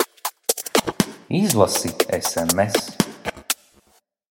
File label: sms Latvian; Izlasi